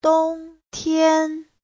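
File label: "dōngtiān", Mandarin Chinese; 冬天; (danh từ) mùa đông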